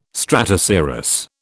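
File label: "stratocirrus", British English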